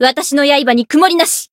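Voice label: Voice chant, Japanese; menesia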